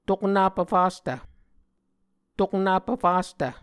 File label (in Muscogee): "toknap vfastv", Muscogee